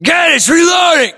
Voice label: inform reloading, US